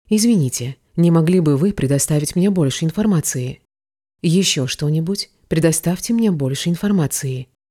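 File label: Russian, female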